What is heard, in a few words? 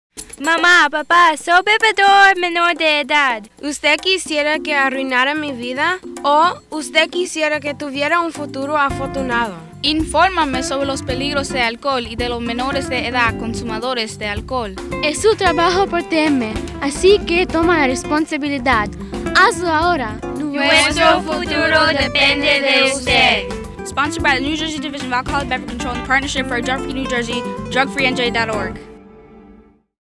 Listen Up psa Spanish